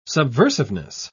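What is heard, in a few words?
"subversiveness", British English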